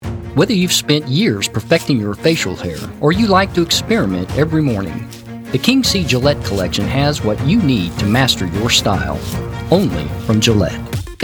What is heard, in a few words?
Commercial